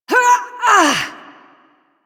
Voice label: Ability Lift, Barks; Warframe; Corpus Lich; Persona B